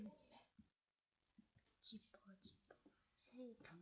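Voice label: "zip", spoken word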